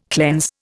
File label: "cleanse", Indian